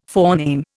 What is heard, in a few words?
"forename", Indian